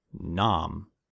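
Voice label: "nom", US English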